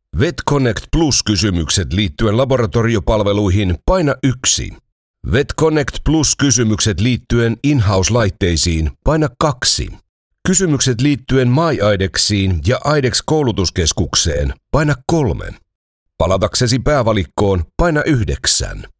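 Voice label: Finnish M IVR, male; voice over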